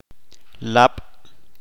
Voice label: "Ghost", Chalchiteko